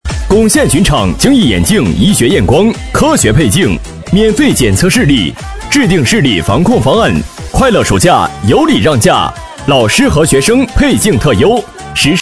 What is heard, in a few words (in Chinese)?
男声; 珙县眼镜